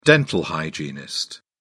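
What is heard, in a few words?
"dental hygienist", British English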